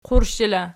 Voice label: "qurshela", Archi